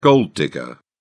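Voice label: "gold digger", British English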